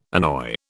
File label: "annoy", British